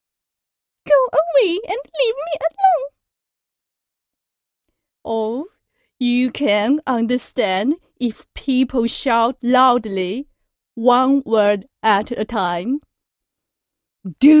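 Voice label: Chinese accented English; GoLocalise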